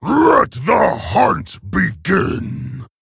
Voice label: Male Orc Emote Start